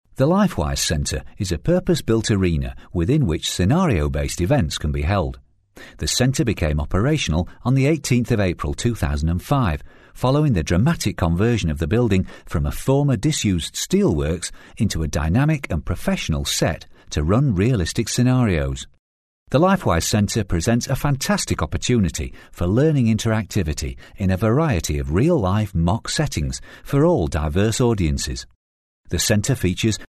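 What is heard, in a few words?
English, British, male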